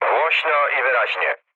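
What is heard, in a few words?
Polish chat; speech; War Thunder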